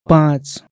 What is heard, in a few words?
"pants", Nepali